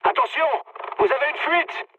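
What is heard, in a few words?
damaged water, mood high, French; War Thunder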